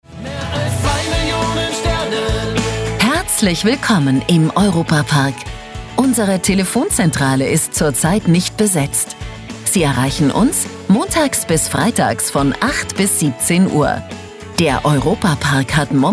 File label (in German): Telefonansage; Europa-Park